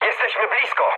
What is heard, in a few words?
near waypoint mood high, speech pol; War Thunder